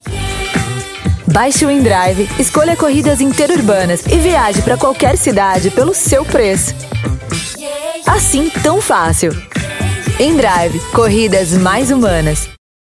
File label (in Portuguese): Locucao